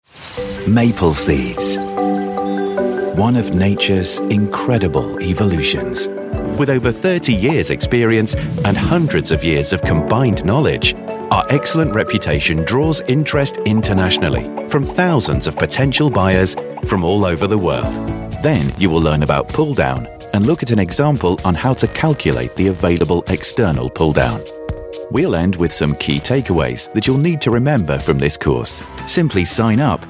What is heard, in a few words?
corporate e-learning, Cool Smooth 30 Something Voice